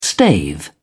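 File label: "stave", British English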